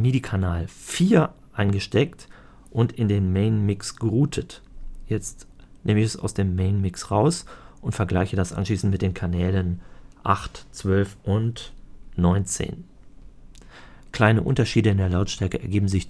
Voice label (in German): Tascam Model 2400 preamps nur voice; via INTREC